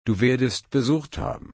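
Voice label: "besuchen", German, slow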